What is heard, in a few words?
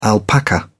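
"alpaca", British English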